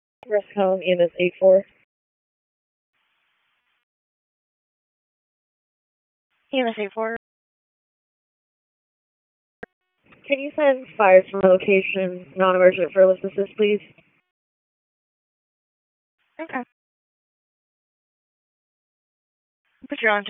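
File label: Wake County EMS Dispatch, p25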